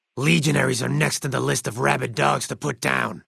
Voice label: male generic ghoul greeting; Fnv

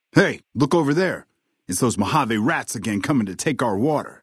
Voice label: male adult leave water; FNV

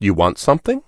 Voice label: Wow early human male shopping

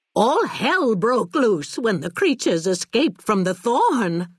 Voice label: male old greeting; Fnv

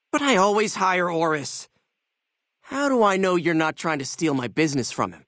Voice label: male adult gambler asks

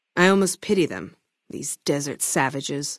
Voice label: female adult greeting; FNV

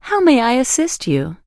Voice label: early human female shopping

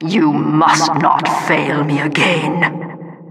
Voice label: announcer you must not fail again

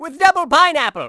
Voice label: funny; Alfred

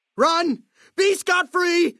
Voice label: male adult dialogue; Fnv

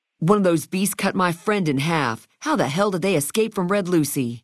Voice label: male adult dialogue greeting; Fnv

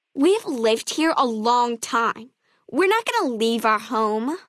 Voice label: female child greeting; FNV

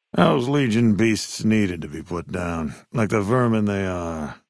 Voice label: maleold greeting, dialogue; Fnv

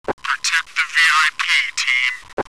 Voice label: radio chat vip

